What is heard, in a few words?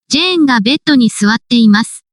case marking particles in Japanese example